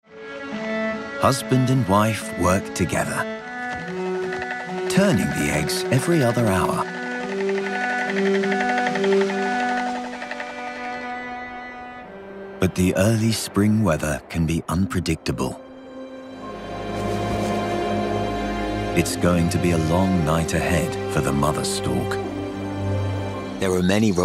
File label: narrative reel, NARRATIVE/E-LEARNING/CORPOR